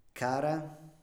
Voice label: "cara", Italienisch; liebe...; Standard-Italienisch

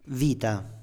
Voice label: "vita", Italienisch; Leben; Standard-Italienisch; Vokale; Monophthonge

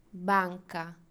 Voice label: "banca", Italienisch; Geldinstitut; Standard-Italienisch

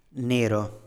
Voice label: "nero", Italienisch; schwarz; Standard-Italienisch

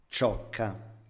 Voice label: "ciocca", Italienisch; Strähne; Standard-Italienisch

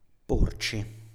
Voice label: "porci" (o), Italienisch; uns Fragen stellen; Standard-Italienisch

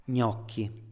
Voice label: "gnocchi", Italienisch; Standard-Italienisch; Kartoffelklößchen

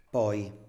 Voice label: "poi", Italienisch; dann; Standard-Italienisch

